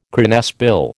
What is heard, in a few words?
"cranesbill", American